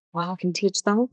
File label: Voice Acting es F3